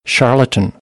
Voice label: "charlatan", American; English

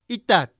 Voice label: "itat", Totonaco; la mitad

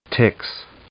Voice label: "tix" noun; English

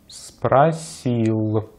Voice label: "спросил", Russian; easypronunciation

